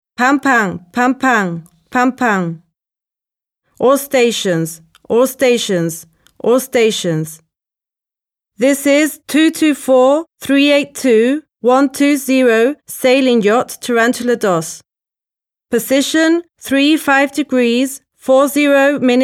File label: Standard urgency message